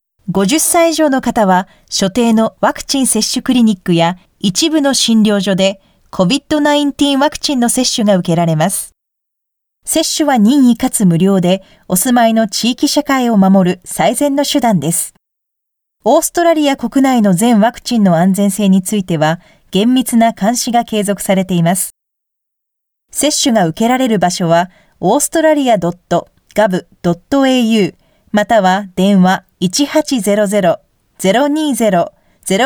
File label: Japanese eLearning